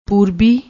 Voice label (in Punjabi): "poorbi", Punjabi